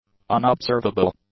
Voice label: "unobservable", English; adjectives